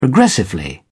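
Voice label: "progressively", British English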